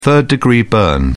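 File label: "third degree burn", British English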